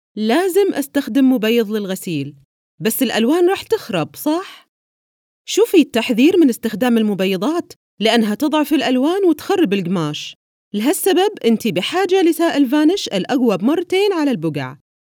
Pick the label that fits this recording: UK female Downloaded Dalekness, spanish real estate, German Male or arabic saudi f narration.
arabic saudi f narration